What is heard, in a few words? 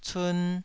"cun2", taiwanese